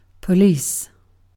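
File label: "police", British English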